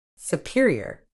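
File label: "superior", British English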